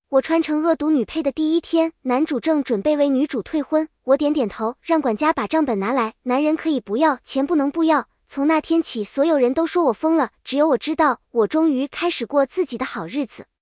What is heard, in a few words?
cn sweet light